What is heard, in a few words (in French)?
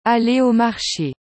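"aller au marche", French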